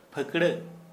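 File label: Santali male wing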